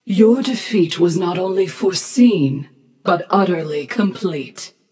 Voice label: taunt passion; chaac